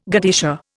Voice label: "godetia", Indian